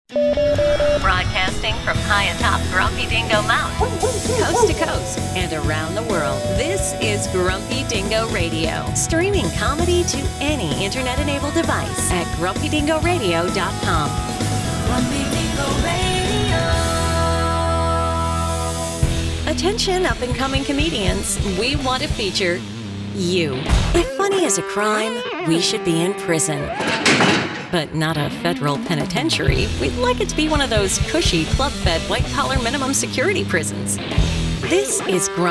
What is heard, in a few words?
corporate narration; Commercial Voiceover, Radio Imaging